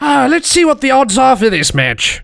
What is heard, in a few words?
Civilian battlecry